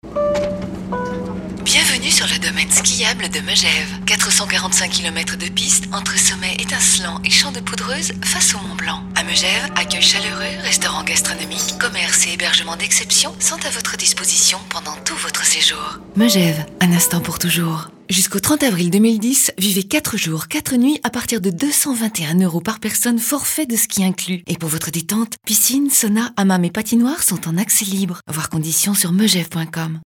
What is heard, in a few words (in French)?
radio; domaine skiable de megeve